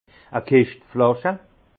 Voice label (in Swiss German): "kischt flasche", alsace; vin; caisse de bouteilles